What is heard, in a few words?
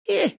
happy; dota; tinker